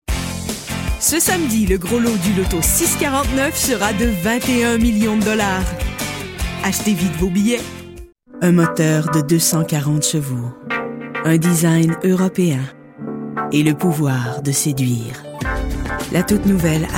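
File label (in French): Démo Voix, French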